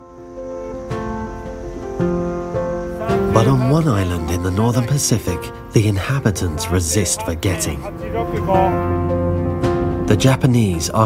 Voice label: DOCUMENTARY; Hokkaido Japan

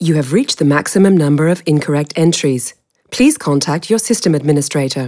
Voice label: DTMF EN Wrong Main Network IVR Prompt

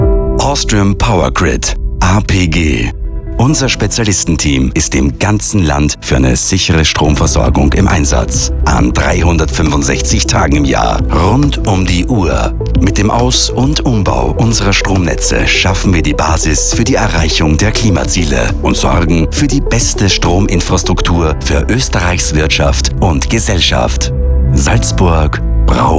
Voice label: Spot Audio

